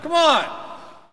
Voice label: Hockey Male "Come On"; SFX Kit; Hockey Vocal Sounds

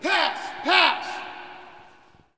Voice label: Hockey Male "Pass"; Hockey Vocal Sounds

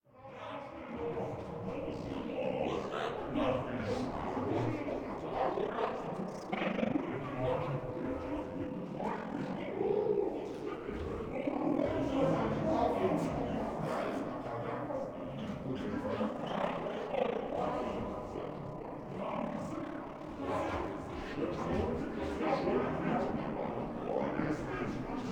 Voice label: Aliens Talking Ambience Several; Hollywood Edge; sound designer's toolkit v2

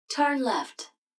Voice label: GPS turn left; Blind Drive

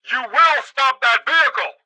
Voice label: police megaphone; Blind Drive

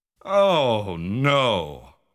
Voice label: Oh No...! (male)

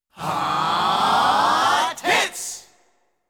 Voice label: Hot Hits, jingles

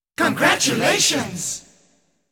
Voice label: Congratulations!, jingles

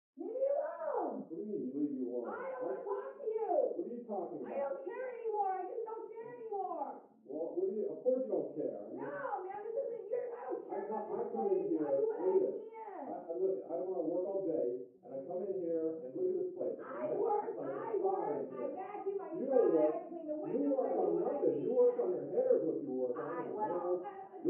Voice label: couple argue; Pitch Black - A Detective's Demise

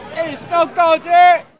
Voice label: Baseball Crowd Vendor; SFX Kit; Crowds

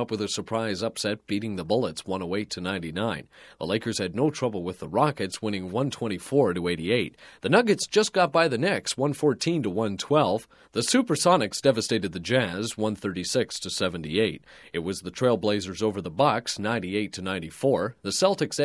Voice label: Broadcast, Sports Generic Sports Report, Radio; Announcer; Sound Ideas; Series 2000

